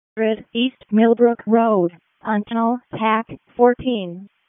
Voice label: EMS ALERT, p25; wake